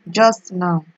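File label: "just now", Nigerian Pidgin, female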